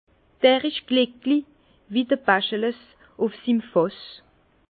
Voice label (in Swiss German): "glicklich", Bas Rhin; vin; celui çi est heureux comme bacchus sur son tonneau